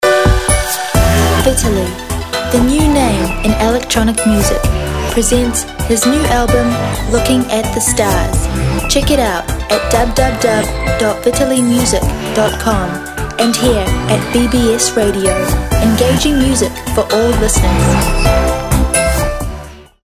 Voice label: bbs radio promo